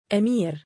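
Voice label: "2amiir" male, Arabic; أَمِير; ’amīr; アミール; Amir、Amiir、Ameer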